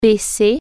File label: "lower", French